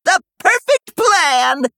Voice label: Male Gnome Attack